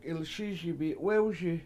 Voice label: "It Is Almost Straight", Washo